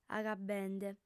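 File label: "agabbende", lingua sarda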